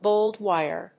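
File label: "gold wire", English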